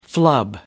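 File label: "flub", British English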